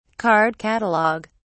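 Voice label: "card catalog", British English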